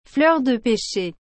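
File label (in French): "fleur de pêcher", French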